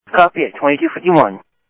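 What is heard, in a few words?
Light Rail Security Light Rail, scanner audio; ThompsonPeakHigh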